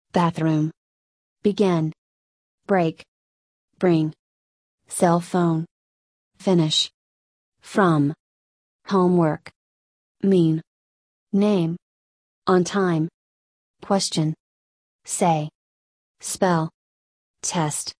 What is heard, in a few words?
usa learning english